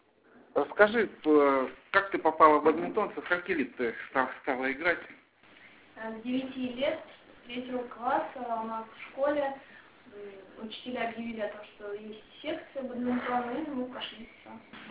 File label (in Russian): Intervju